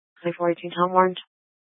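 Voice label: Tempe police department, scanner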